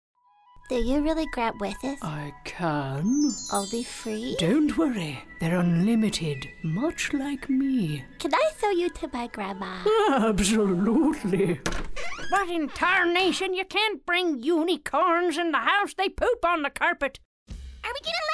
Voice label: animation